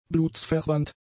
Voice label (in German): "blutsverwandt", German; adjectives